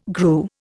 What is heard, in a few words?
"grew", Indian